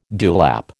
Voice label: "dewlap", American

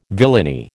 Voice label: "villainy", American

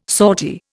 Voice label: "sortie", Indian

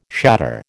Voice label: "shatter", American

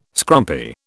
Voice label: "scrumpy", British

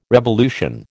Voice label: "revolution", American

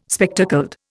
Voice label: "spectacled", Indian

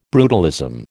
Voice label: "brutalism", American

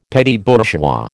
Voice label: "petit bourgeois", American

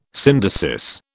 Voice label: "syndesis", British

